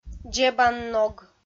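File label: "дзебанног", Komi, female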